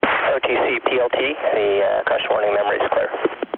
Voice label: Space Shuttle Launch Comm UHF CW; Nasa